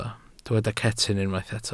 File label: "cetyn", Welsh